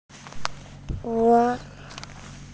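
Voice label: "hua1", Mandarin Chinese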